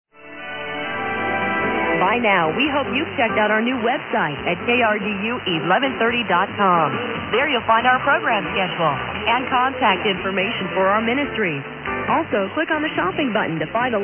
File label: promo; 1130 KRDU; usa; Dinuba CA; religion; promo for website